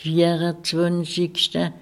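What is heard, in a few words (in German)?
"viarazwànzigschta" Alsatian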